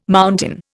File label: "mountain", Indian